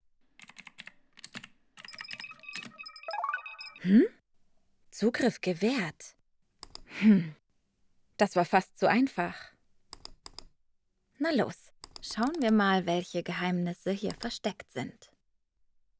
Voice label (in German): Hoerspiel Hackerin